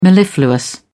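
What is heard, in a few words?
"mellifluous", British English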